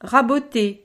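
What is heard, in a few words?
"raboter", French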